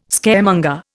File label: "Scaremonger", Indian